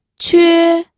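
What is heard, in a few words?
"que", Mandarin Chinese, first tone; pinyin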